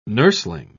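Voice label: "nurseling", English, British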